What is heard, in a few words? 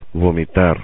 "vomitar", spanish; infinitive